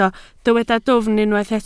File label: "dwfn", Welsh